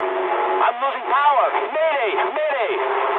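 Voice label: English damaged engine mood medium; War Thunder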